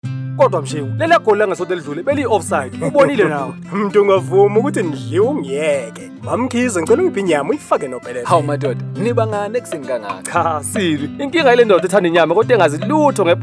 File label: Zulu ad; SA PORK